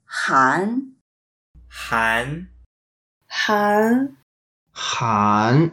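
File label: "han2", Mandarin Chinese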